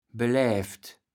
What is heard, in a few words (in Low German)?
"belävt", kaevels platt